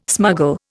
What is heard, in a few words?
"smuggle", Indian